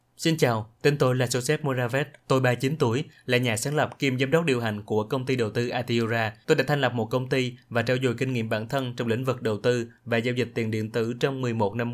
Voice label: Vietnamese, Male